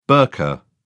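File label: "burqa", British English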